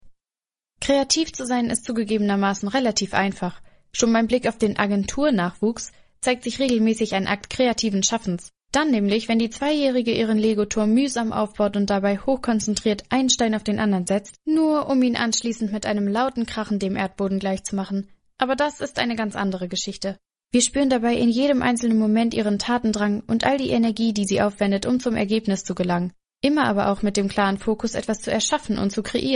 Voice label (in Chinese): 德语女声